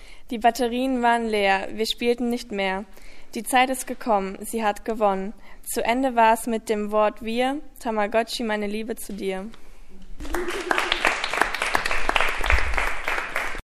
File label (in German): Poetry Slam Haustier